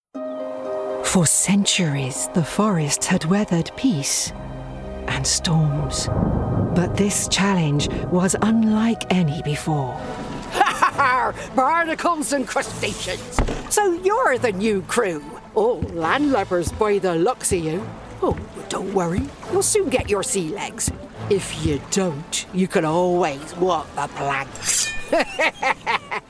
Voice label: Animation